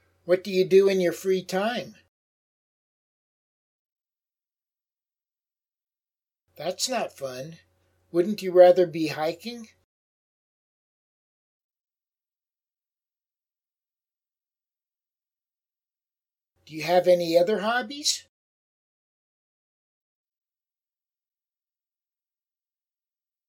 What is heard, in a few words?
Natural Dialog